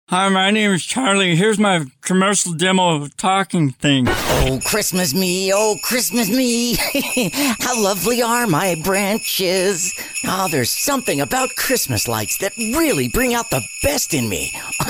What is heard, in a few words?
Character